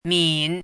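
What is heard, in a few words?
"min", pinyin, third tone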